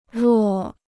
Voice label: "若", Chinese

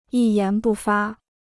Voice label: "一言不发", Chinese

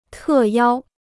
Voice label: "特邀", Chinese

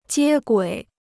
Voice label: "接轨", Chinese

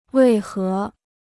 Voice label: "为何", Chinese

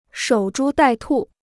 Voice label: "守株待兔", Chinese

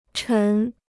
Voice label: "沉", Chinese

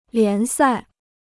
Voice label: "联赛", Chinese

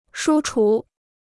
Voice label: "书橱", Chinese

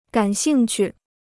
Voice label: "感兴趣", Chinese

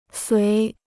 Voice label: "随", Chinese

